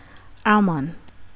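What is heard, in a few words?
"almond", Thai, female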